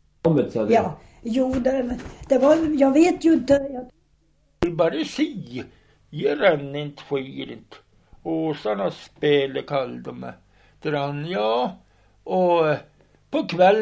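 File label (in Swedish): Hackås Jämtland, dialekter; Svenska sagor; Folkminnen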